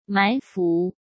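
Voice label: "埋伏", Mandarin Chinese; hsk; chinese-flashcards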